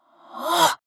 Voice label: anime voice